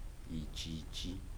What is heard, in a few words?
"small", Kibembe